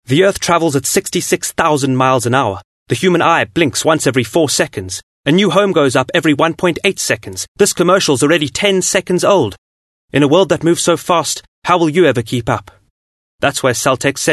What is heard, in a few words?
English Corporate